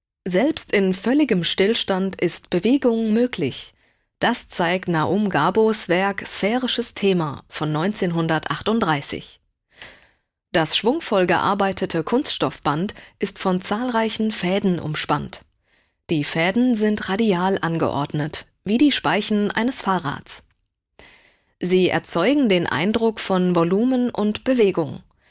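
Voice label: German audioguide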